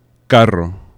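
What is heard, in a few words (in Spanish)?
"carro", Spanish; laboratori fonetica; vibrants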